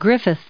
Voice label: "Griffith", us

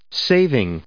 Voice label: "saving", us

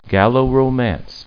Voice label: "gallo", en us

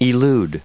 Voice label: "elude", us

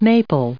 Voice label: "maple", us

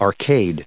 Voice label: "arcades", us

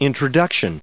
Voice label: "introduction", en us

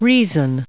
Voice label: "reason", us

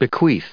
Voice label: "bequeath", en us

